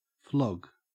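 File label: "flog", Australian English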